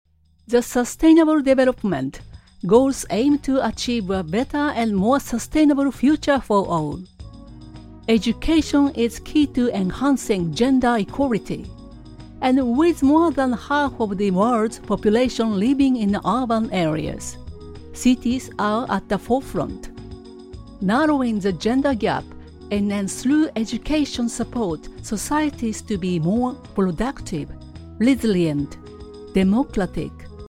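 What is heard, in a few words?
Japanese, female, English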